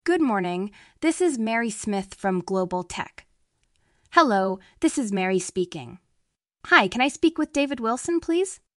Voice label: Telephone Starting conversation